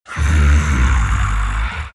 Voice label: anger; dota; earth spirit

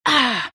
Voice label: temp pain; templar assassin

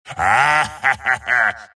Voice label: wraith death; dota; skeleton king

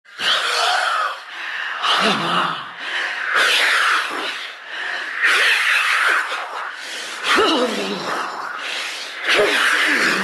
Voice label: dpro wailing; dota; death prophet

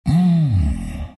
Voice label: treant happy

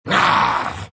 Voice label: troll pain; dota; troll warlord

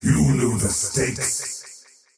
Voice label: last hit pain, faceless void; dota

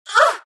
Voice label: naga pain; dota; naga siren

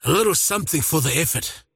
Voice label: last hit pain; dota; largo

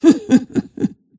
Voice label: happy; snapfire

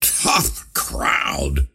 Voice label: teleport pain; ringmaster

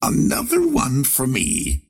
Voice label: lasthit pain; ringmaster

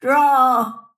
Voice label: attack pain; snapfire

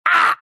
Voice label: pain; dota; meepo

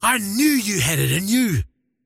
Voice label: croak big; dota; largo

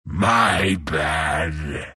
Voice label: troll death; troll warlord

